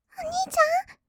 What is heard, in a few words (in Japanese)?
"おにいちゃん？", kawaii voice; ASMR; 二人称